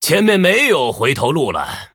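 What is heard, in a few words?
Male, Chinese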